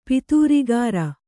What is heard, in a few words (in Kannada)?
"ಪತ್ರಾಗಾರ", Kannada